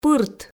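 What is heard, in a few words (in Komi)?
"пырт", Komi, female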